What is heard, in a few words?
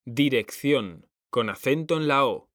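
"DIRECCIÓN", Spanish; mekanta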